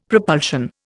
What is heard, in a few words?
"propulsion", Indian; Dictionary